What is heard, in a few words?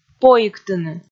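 "поиктыны", Komi, female; kpv audio dict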